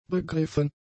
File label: "begriffen", German; adjectives